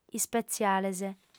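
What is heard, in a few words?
"ispatziales", female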